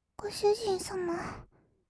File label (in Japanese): ご主人様, kawaii voice; ASMR; 二人称